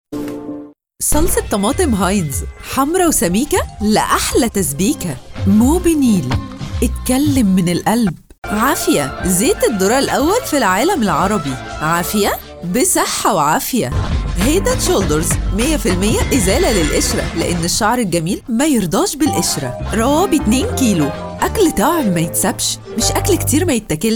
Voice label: سلوجان اعلانات متفرقة

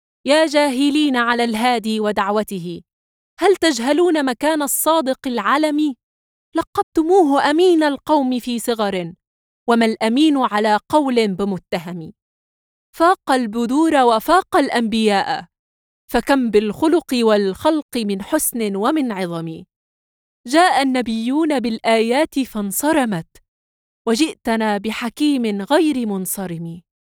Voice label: شعر

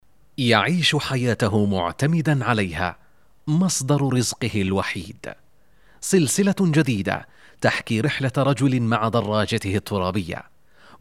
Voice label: برومو وثائقي

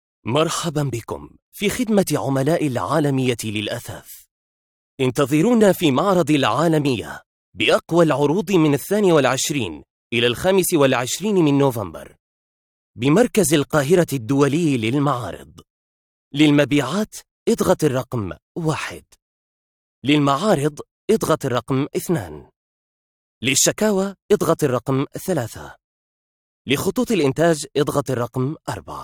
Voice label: تعليق صوتي رد آلي

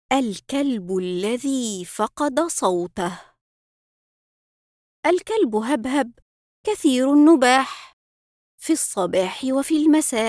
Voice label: اطفال

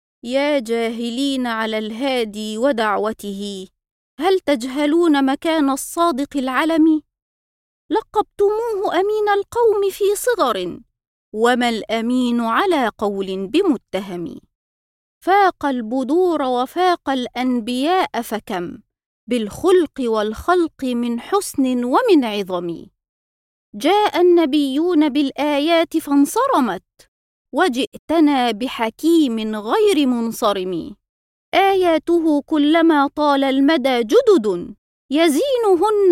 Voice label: شعر

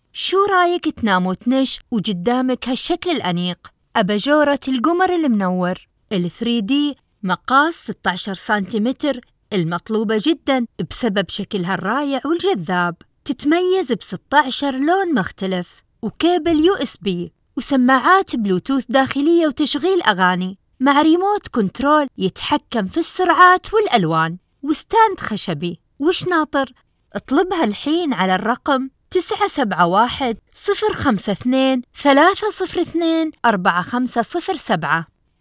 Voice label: إماراتي; أباجورة; سيتاب

